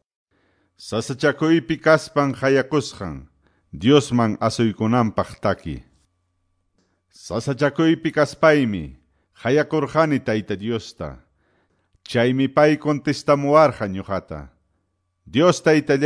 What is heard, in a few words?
salmos, quechua ayacucho